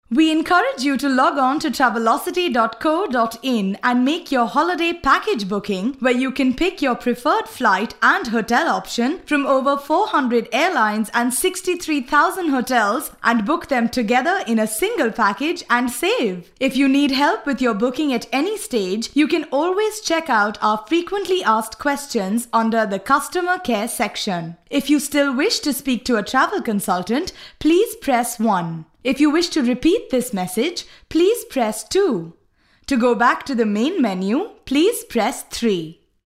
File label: VO english, English female